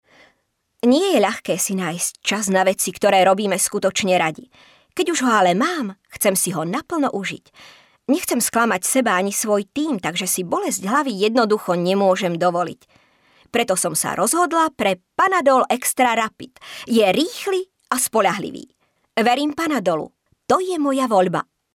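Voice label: Female Slovak commercial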